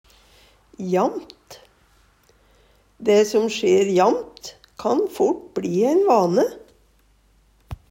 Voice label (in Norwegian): jamt, Numedalsmål; ofte; Adverb